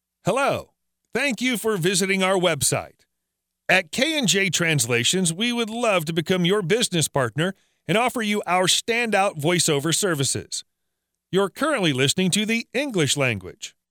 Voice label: english male